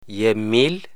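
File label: "yämmil", amharico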